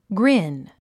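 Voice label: "grin", English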